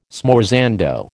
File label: "smorzando", American